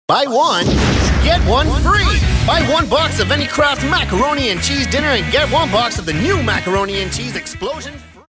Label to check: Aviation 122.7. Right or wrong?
Wrong